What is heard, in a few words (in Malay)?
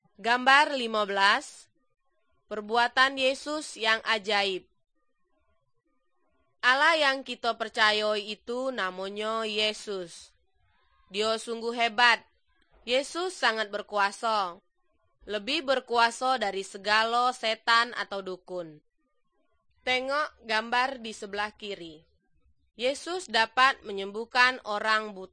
good news malay jambi